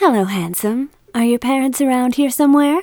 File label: nurse female; Voices; lucius ii the prophecy; meetplayer